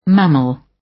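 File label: "mammal", British; Flora and fauna, agriculture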